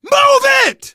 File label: emphatic go, survivor voice, manager; source engine; left 4 dead 2